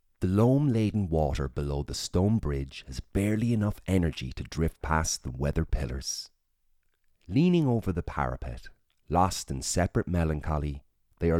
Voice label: Home Recording